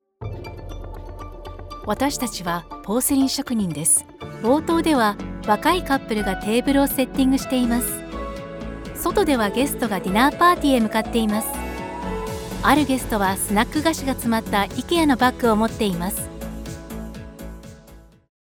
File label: japanese narration